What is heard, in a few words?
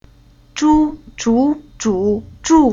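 "Zhu", chinese syllable; Уроки фонетического блока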